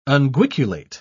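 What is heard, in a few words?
"unguiculate", British English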